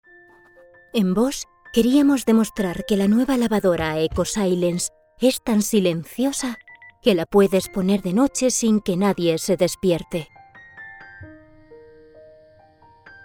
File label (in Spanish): TONO SUAVE; BOSCH